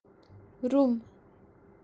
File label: "Rum" crack kashmiri